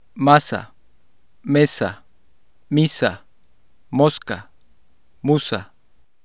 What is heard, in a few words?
spanish